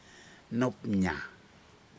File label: birds redbellied fruit dove, Aneityum